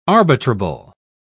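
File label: "arbitrable", British English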